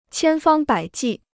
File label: "千方百计", Chinese